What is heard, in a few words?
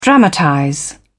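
"dramatize", British English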